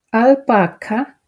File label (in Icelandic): "baka", regla 1